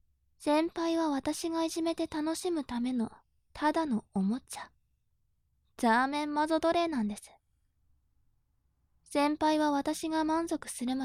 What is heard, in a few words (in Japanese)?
調教中音声